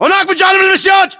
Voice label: landmark near fence, localized english battlechatter